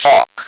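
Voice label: "talk" English, Speak & Spell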